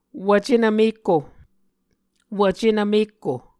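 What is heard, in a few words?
"wvcenv mekko", Muscogee words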